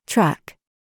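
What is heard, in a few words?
TRAP, words, British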